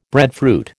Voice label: "breadfruit", American